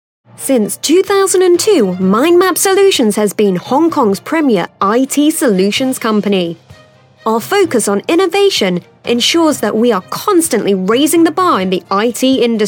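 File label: British English, narration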